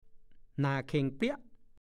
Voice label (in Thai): "หน่าเค่งเปรี้ยะ", ภาษาโคราช; หน้าบึ้งมาก